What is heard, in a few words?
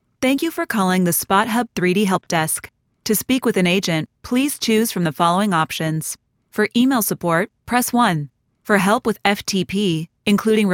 English native, bilingual